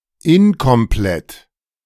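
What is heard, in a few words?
"inkomplett", German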